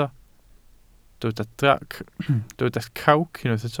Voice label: "crawc", Welsh